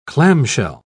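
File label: "clamshell", British English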